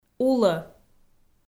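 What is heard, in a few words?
"улӧ", Komi, female; kpv audio dict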